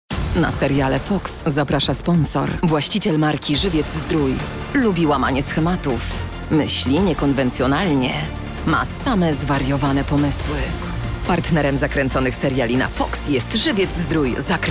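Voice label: Polish, female; lektoring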